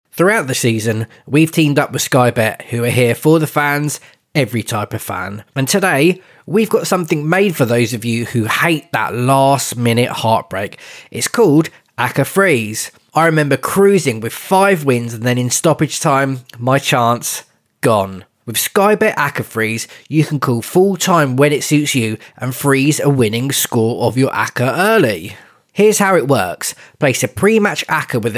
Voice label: Advert; Lads Anonymous Sky Bet Acca Freeze